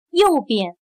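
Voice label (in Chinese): "右边" Mandarin Chinese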